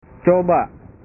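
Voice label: "coba", Indonesian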